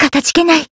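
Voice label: healed, Japanese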